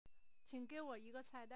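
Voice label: cai4dan1 sentence, Chinese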